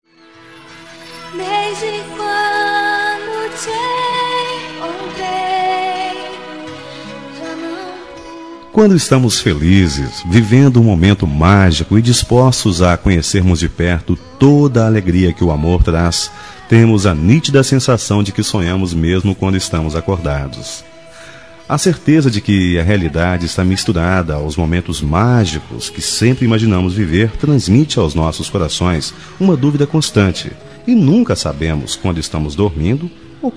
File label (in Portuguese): ROM RELIGIOSA MASC